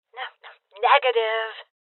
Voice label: Voices Refuse Female Old; rebel cops 2019